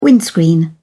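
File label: "windscreen", British English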